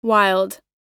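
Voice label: "wild", English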